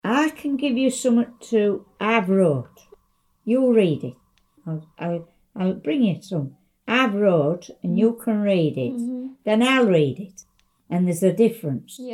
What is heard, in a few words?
England Yorkshire Female 70s monologue